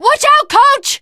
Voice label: teengirl, voice; Left 4 Dead 2

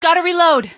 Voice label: "gotta reload", female; npc; Garry's Mod; hl2

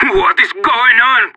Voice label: killed friend, separatist; player vo

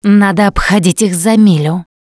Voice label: heard witch, Russian; Voice; Producer

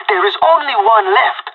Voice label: one enemy left, pirate; Counter-Strike Global Offensive

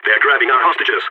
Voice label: hostages being taken, balkan; Counter-Strike Global Offensive

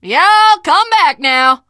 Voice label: teengirl, voice; Left 4 Dead 2; l4d1 finale

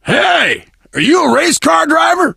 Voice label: initial meeting, survivor voice biker; Left 4 Dead 2

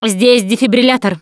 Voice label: defibrillator, russian; Producer

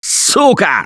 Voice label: reaction negative, russian; Gambler

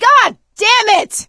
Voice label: reaction negative, survivor voice; Left 4 Dead 2; producer

